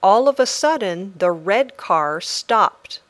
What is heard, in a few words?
Dictation